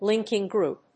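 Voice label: "linking group", English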